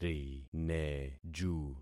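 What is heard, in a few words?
"rineju", concatenated syllables; Affixing experiment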